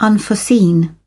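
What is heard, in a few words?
"unforeseen", British English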